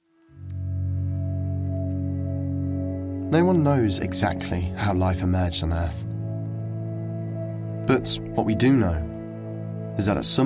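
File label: British English, Documentary; GoLocalise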